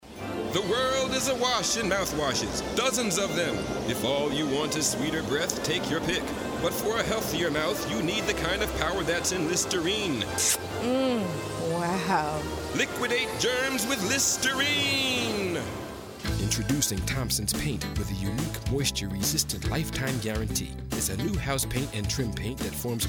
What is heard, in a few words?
commercial, men